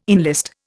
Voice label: "enlist", Indian